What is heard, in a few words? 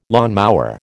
"lawnmower", American